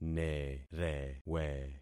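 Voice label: "nerewe", concatenated syllables; Affixing experiment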